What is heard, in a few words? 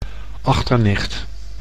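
"achternicht", Dutch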